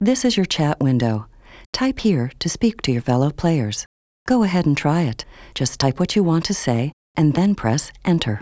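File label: tutorial chat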